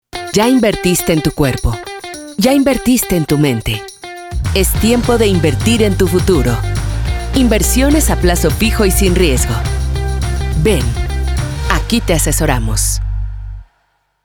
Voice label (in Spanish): Productos financieros ejecutiva determinada